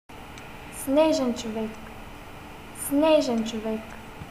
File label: snowman bg, Bulgarian; снежен човек